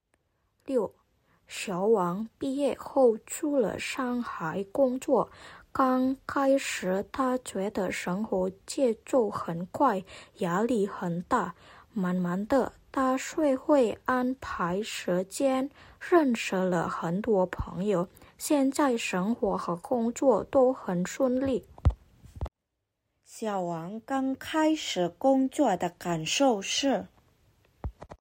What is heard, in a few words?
Mandarin; mandarin placement test hsk5